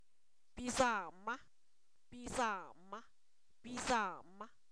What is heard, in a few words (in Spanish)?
Zapoteco, san miguel albarradas; su hermano; posesivos